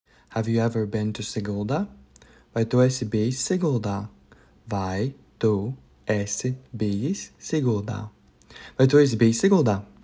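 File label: Have you ever been to Sigulda, Latvian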